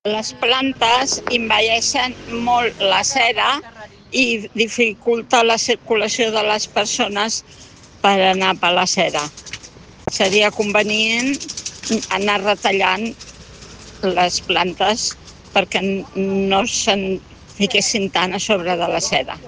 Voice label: Voice message